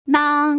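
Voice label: "nang", Mandarin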